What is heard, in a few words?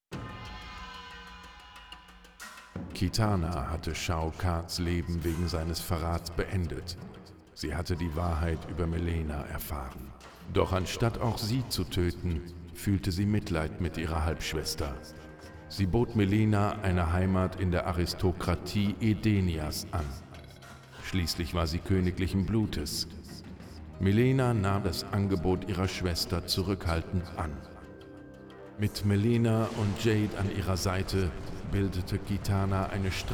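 music voice over endings German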